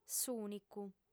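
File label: "s'ùnicu", lingua sarda, female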